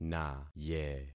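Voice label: "naye", concatenated syllables; Affixing experiment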